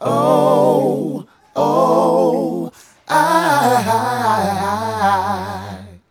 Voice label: Male Vocal